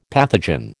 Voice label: "pathogen", American